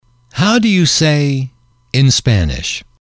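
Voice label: "how do you say X in Spanish", English, male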